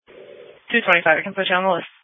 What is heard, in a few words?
Police Dispatch